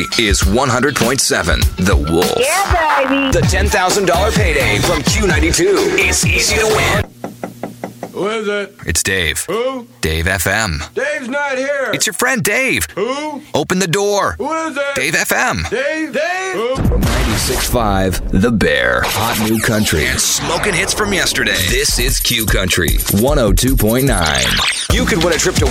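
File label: radio imaging, American Male Voice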